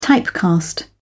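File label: "typecast", English, British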